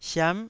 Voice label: "siam9", taiwanese Syllables